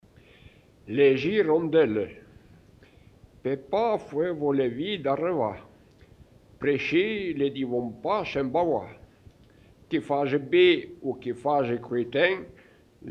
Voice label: patois de St Luc Anniviers poème; patois valaisans; Les hirondelles

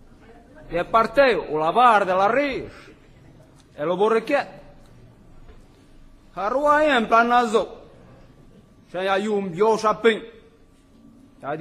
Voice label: patois d'Heremence; patois valaisans; Jean le naif

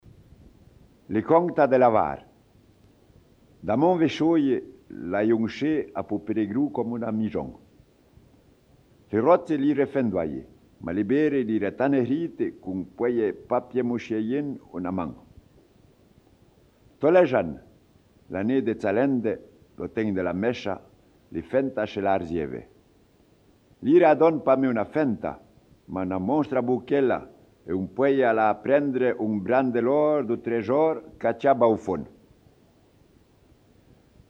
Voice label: patois de St Luc Anniviers histoire; patois valaisans; Lavare

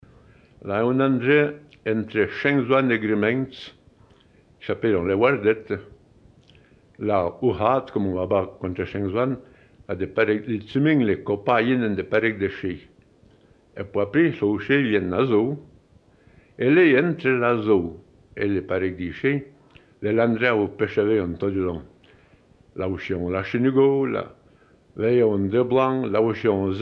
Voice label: De nuit sur la route de Grimentz Patois de Grimentz Anniviers histoire; patois valaisans

